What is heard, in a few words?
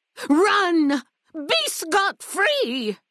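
female old greeting; Fnv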